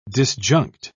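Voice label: "disjunct", English, British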